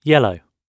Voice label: "yellow", English